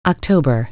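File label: "October", en; PBXNSIP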